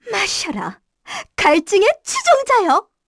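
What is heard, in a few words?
Vox Skill, Korean; Erze; kingsraid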